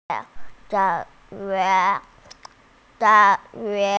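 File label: "ci2 yu3", Mandarin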